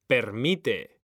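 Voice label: "permite", Spanish; mekanta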